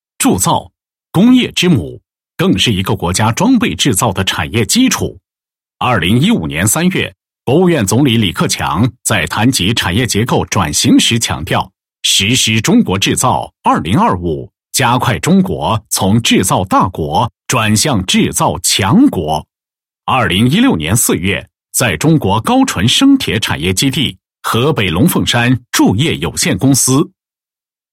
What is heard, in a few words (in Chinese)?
男 企业; 龙凤山高纯生铁宣传